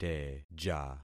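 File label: "teja", concatenated syllables; Affixing experiment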